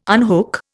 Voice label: "unhook", Indian